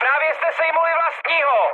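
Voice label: player killed ally, Czech, mood high; War Thunder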